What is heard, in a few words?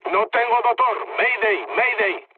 damaged engine, mood low, speech; War Thunder